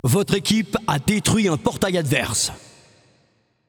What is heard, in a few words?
Announcer French Match Destroyed Enemy Gate